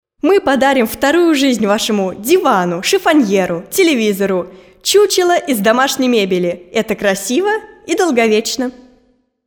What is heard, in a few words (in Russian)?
Reclama